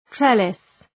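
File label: "trellis", English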